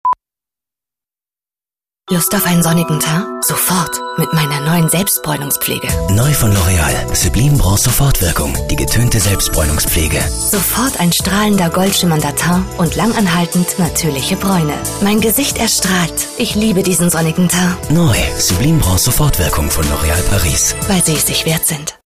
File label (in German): Werbung Freundlich